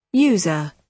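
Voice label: "user", British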